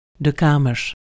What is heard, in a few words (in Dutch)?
"kamer", nl